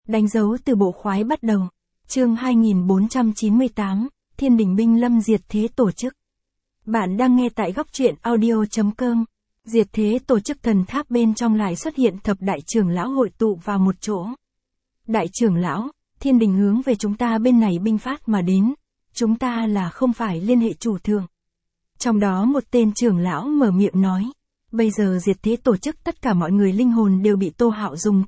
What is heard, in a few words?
vi-VN Standard; danh dau tu bo khoai bat dau